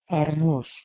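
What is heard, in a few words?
"h2armos", Proto-Indo-European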